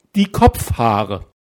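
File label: "Kopfhaare", German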